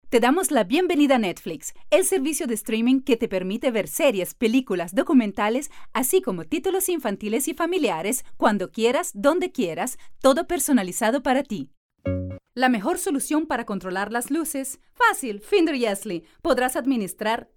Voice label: female Italian and Spanish Venezuelan neutral Spanish Latam commercial